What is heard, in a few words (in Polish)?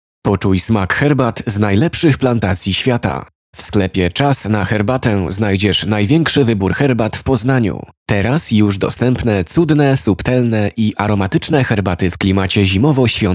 demo reklama